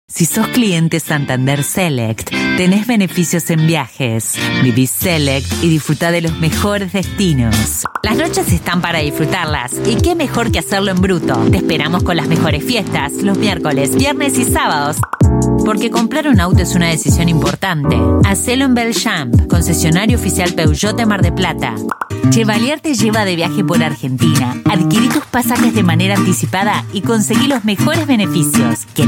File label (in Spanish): rioplatense